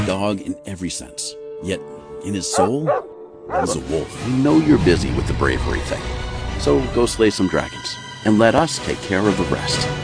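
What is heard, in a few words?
Tv Advertisement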